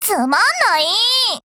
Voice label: PHRASE JP; BossArchitect

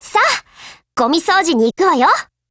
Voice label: ATTACK JP; Saiga12

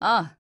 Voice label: AGREE JP

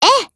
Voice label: AGREE, JP; UMP45

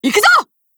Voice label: GO ATTACK JP

